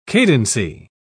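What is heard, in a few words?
"cadency", British English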